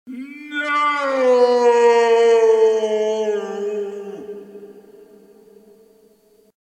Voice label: noooooooooo no music

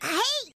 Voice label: happy aye; fairy tail

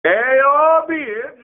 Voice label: ayy bitch, loud

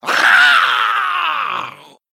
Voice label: male murloc death

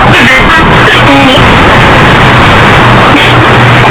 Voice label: yelling; ur mom

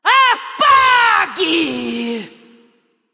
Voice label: voice portugues brasileiro brazilian portuguese; elementalist lux; league of legends; Lux Elementalista – APAGUEEE

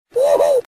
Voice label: Gnome Woohoo

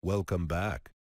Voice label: welcome back, male; teamspeak 3

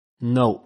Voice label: simple no male voice

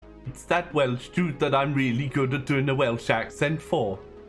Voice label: welsh dude lol

